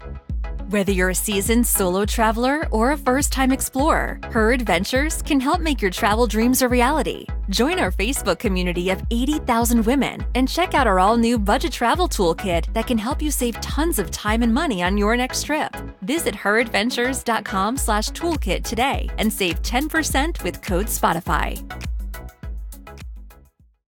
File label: Audio Spot